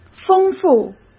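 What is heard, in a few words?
"丰富", Mandarin Chinese; chinese-flashcards; hsk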